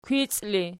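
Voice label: "kwici" ergative, Archi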